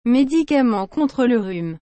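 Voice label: "medicaments contre le rhume", French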